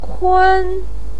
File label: "kun1", Chinese pinyin voice